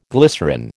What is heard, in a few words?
"glycerine", American